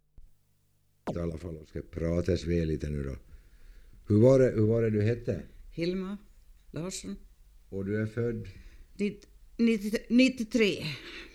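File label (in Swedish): Hedemora Dalarna, dialekter; Folkminnen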